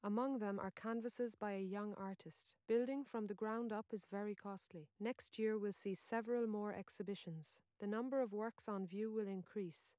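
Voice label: female, instantaneous; SiSEC11; underdetermined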